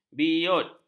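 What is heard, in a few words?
"biut", Malay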